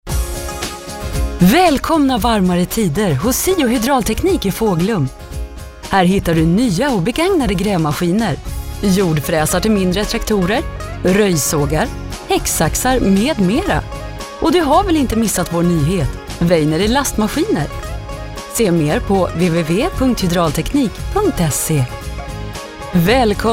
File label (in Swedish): reklam